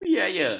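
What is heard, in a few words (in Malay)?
"biaya", Malay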